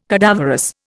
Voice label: "cadaverous", Indian

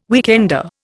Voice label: "weekender", Indian

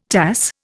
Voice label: "Tass", Indian

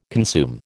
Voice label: "consume", American

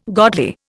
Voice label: "godly", Indian

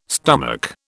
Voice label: "stomach", British

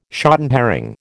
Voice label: "shotten herring", American

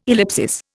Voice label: "ellipsis", Indian

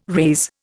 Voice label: "raze", Indian

